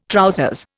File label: "trousers", Indian